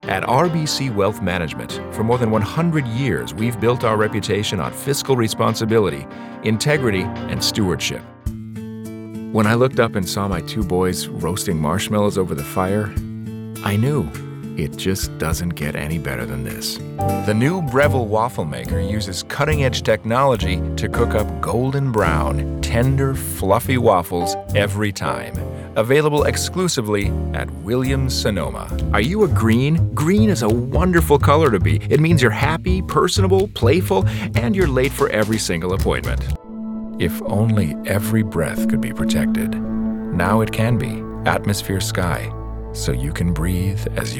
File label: male commercial